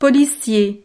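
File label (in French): "policier", French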